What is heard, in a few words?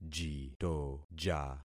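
"jitoja", concatenated syllables; Affixing experiment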